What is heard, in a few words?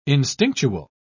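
"instinctual", British English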